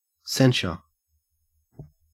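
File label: "censure", Australian English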